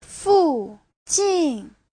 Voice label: "fu4 jin4", chinese; Giáo trình Hán ngữ